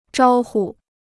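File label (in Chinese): "招呼" Chinese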